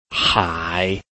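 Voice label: "hai", Chinese, tone 3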